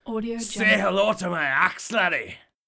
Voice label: dwarf says say hello to my axe laddy

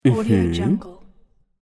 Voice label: human male mmh mmh yes

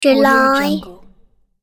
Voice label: boy says "july"

